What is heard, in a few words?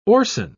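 "orcin", English, British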